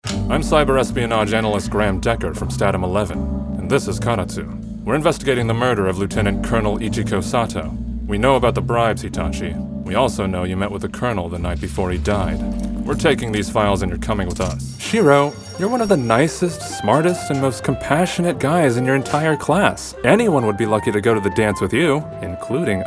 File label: Character; home studio in Austin